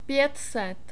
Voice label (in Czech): "pět set", Czech; numbers